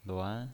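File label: "luan", pinyin, Mandarin Chinese, second tone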